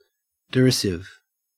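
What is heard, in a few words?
"derisive", Australian English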